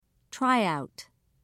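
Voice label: "tryout", British English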